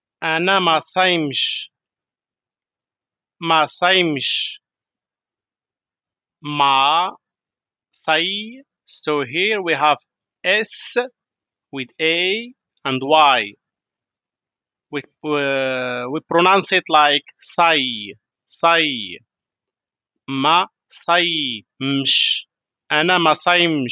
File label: im not fasting for a man in moroccan arabic vocabulary darija